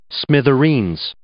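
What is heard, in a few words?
"smithereens", English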